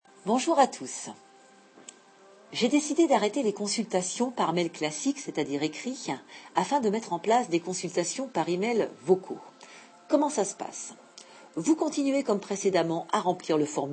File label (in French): message vocal